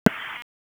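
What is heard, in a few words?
Police Northeast District Disp, radio; Raleigh; wake p25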